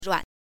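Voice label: "ruan", Mandarin Chinese pinyin, neutral tone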